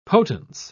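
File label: "potence", British English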